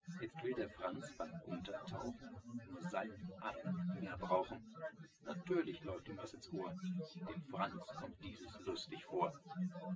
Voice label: test male synthconv 130ms 5cm src